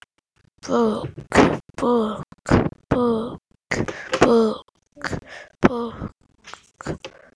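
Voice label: "book", spoken word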